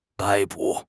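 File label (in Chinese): "逮捕", Mandarin Chinese; HSK6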